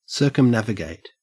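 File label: "circumnavigate", English, Australian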